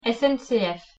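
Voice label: "SNCF", French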